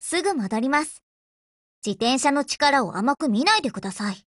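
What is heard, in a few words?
OPERATION BEGIN Japanese, Voice; GF Alarm; BrowningHP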